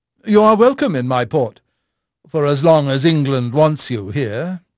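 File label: English male; Pirates Of The Caribbean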